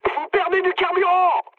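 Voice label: French tank damaged mood high; War Thunder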